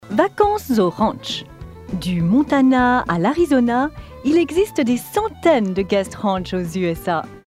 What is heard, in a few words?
French; Arizona